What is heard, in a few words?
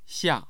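"xia4", Mandarin Chinese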